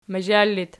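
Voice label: "mazhal" locative, Archi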